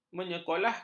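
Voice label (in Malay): "menyekolahkan", Malay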